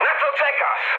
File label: attack position mood high, speech pol; War Thunder